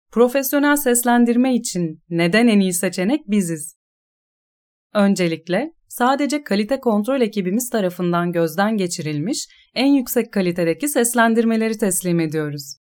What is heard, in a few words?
turkish corporate videos